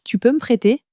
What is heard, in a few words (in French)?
tu peux me prêter, common french words